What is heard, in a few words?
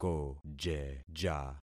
"kojeja", concat syllables; Affixing experiment